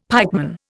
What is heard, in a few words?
"pikeman", Indian; Dictionary